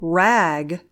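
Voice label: "rag", English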